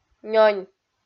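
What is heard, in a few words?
"нёнь", Komi, female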